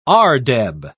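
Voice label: "ardeb", English, British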